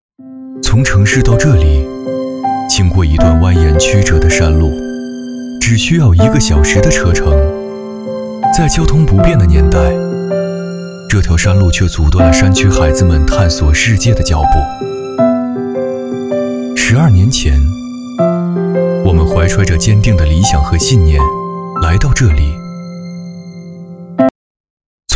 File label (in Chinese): 男 宣传片 轻松感; 民盟宣传片